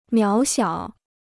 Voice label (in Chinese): "渺小", Chinese